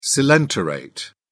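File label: "coelenterate", English, British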